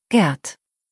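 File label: "Gerd", German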